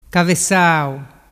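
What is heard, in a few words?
"cavesau", Vernazzese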